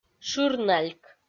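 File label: "шыр нальк", Komi, female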